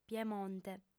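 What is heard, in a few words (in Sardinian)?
"piemonte", female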